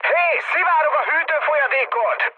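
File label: Hungarian damaged water mood high, speech; War Thunder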